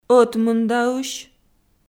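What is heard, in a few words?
"ӧтмындаысь" Komi, female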